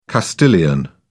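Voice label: "castilian", British English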